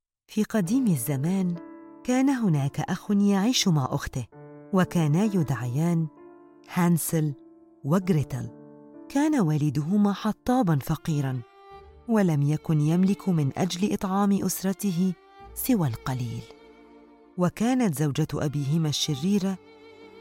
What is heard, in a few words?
Modern Standard Arabic Narration